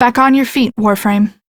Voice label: Revive Operator Voice en; Warframe; Operator Mission

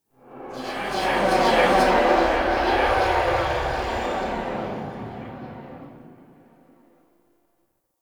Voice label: Tenno Whisper; Warframe; Lotus Eaters; Ambience

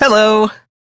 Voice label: Crew Greet, Male; Dialog; Warframe; Railjack Crew; Perrin Sequence

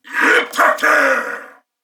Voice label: Attack Male, en; Dialog; Barks; Battle; Corpus Venus; Warframe; CorpusVenusMaleB

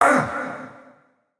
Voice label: Pain Spider, en; Mendicant Wazard

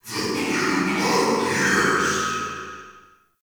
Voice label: Wandering Ghost Soldier Vocal Idle; Enemy; Vocals

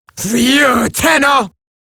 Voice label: Player Spotted, Female; Warframe; Eximus Grineer Female; Battle Barks

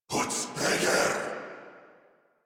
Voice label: Player Spotted Corrupted Grineer Male

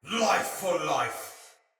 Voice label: Pre Death, Soldier Male; Battle; Barks; Dialog; Sinecure Soldier Male B

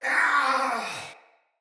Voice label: Death Soldier Male; Battle; Barks; Dialog; Sinecure Soldier Male A

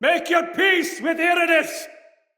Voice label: fearful cry afar, soldier male; Dendrit

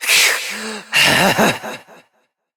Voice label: Frustration; Evil Twin B; Warframe; Entrati Doppelganger; Battle Barks

